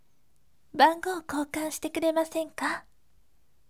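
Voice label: "bangou koukan site", gentle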